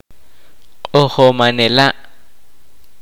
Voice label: kaqchikel; Marimba players